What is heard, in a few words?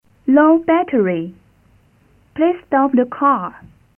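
8k 8nonPCM English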